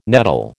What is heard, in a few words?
"nettle", American